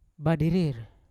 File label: "badirir", Yawa; to fly; unat